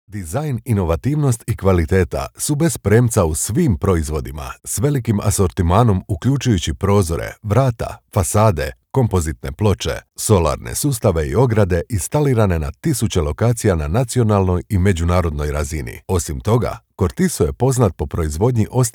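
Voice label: Croatian, male, commercial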